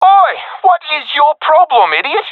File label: takingfire friendly, professional epic, agents; vo; Source Model Packs; Counter Strike Model Pack; CS2